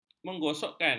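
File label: "menggosokkan", Malay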